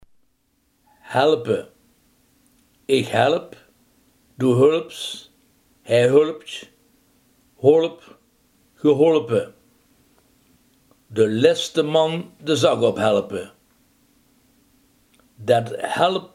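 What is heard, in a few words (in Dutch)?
helpen, Limburgse gesproken woordenboeken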